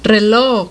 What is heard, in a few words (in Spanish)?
"reloj", Spanish; reloj (clock)